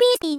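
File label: "pspin", voice; Techmino; rin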